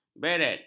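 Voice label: "beret", Malay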